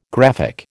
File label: "graphic", American